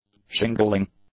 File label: "shingling", English; adjectives